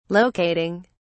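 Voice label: "locating", English, British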